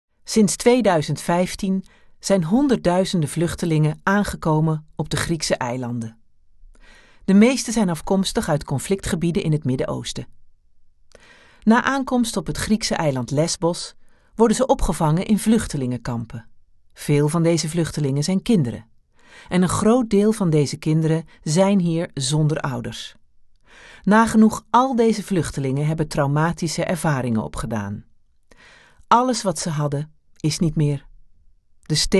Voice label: AV commentaar serieus; Vluchtelingekampen

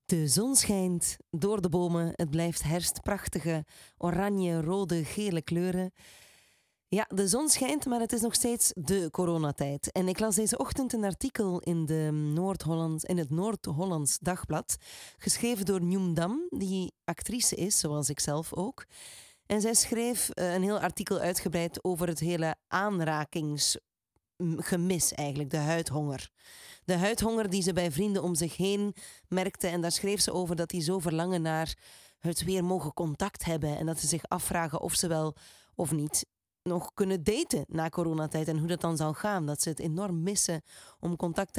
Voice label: VL Radiopresentatie

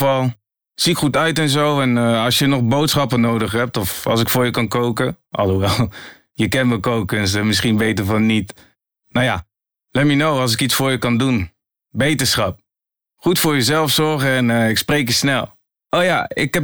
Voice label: NL VM Bericht

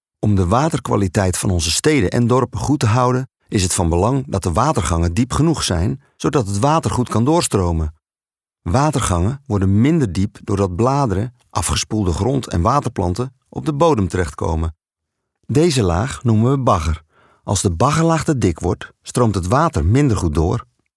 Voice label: AV commentaar

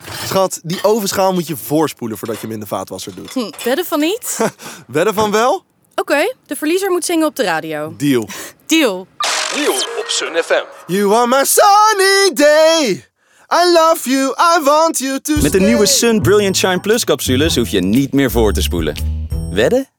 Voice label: Dialoog; Sun

